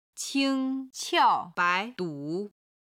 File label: "qing1qiao4bai2du3", chinese